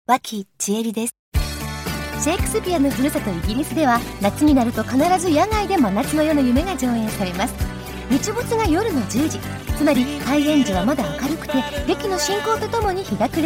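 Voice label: female radio spot, English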